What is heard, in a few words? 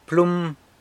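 "plum", pen, San Lucas Quiaviní Zapotec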